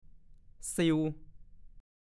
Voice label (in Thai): "ซิว", ภาษาโคราช; 1. โดนจับ